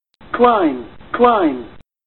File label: Female Climb Climb